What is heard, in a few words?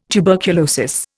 "tuberculosis", Indian